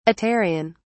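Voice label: "aterian", English, uk British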